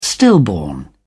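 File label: "stillborn", British English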